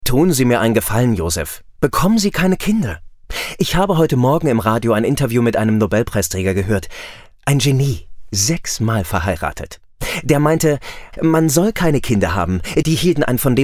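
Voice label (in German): Schauspiel Neutral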